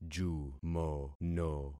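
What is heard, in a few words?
"jumono", concatenated syllables; Affixing experiment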